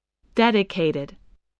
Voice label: "dedicated", English